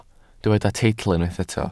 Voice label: "teitl", Welsh

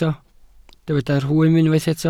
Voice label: "rhwym", Welsh

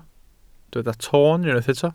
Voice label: "toon", Welsh

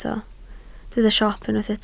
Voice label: "siop", Welsh

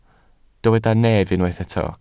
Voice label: "nef", Welsh

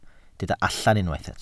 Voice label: "allan", Welsh

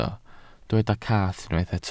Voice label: "cath", Welsh

